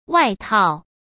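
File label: "外套", Mandarin Chinese; hsk; chinese-flashcards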